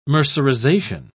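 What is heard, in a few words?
"mercerization", British English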